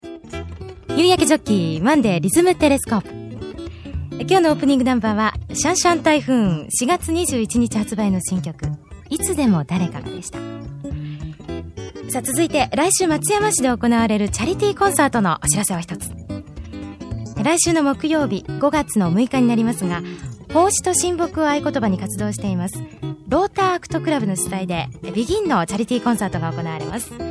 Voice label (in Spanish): locutora japonesa